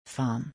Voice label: "fan", Swedish